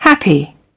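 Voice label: "happy", English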